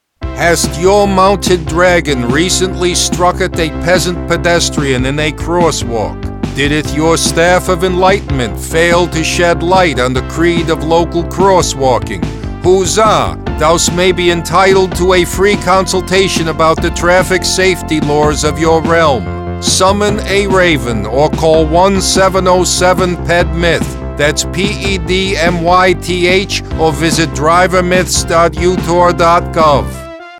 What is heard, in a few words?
Radio; PedestrianMyths; Dragon